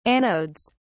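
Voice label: "anodes", British English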